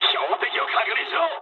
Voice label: player friendly fire mood med, speech jp